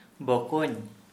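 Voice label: Santali, male, younger brother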